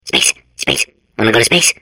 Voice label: babble; portal2